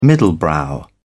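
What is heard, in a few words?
"middlebrow", British English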